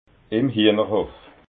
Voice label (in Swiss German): "hiehnerhof", alsace